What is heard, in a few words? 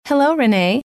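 hello, female; trainer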